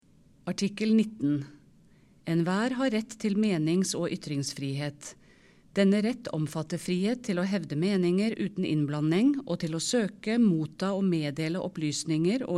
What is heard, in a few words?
Norwegian